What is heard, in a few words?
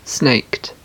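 "snaked", British English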